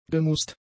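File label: "gemußt", German; adjectives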